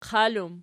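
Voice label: "qal" plural, Archi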